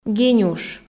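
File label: "генюш", Komi, female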